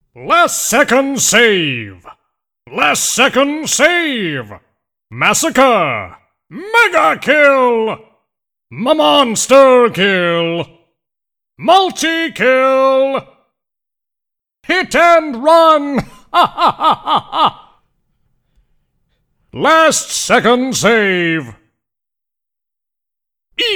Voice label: Announcer Upbeat; UT4